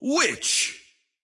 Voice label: Witch intense